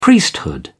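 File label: "priesthood", British English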